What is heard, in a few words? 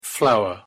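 "flower" pronunciation English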